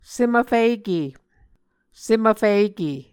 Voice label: "sem vfike", Muscogee